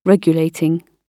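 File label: "regulating", British English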